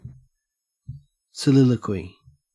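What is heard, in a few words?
"soliloquy", Australian English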